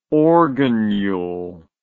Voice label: "organule", English word